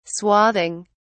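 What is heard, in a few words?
"swathing", British English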